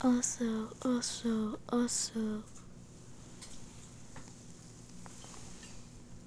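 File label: "also", spoken word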